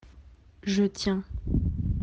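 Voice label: "tenir", French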